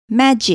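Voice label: "magic", English word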